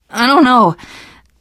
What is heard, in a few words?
getting revived, teen girl; voice; source engine; left 4 dead 2; survivor